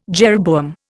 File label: "jeroboam", Indian